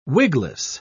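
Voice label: "wigless", British English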